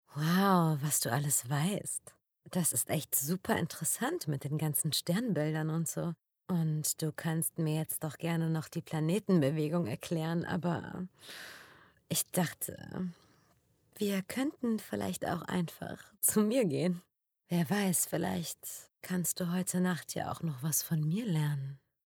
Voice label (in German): Sexy